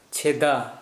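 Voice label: hole, Kera Mundari, male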